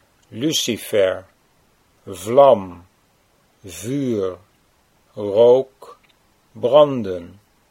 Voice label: "lucifer vlam", Dutch

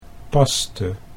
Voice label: "paste", Dutch

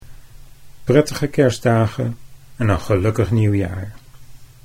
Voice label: "prettige kerstdagen", Dutch

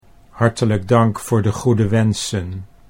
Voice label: "hartelijk dank voor de goede", Dutch

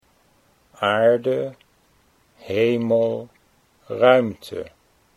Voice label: "aarde hemel", Dutch

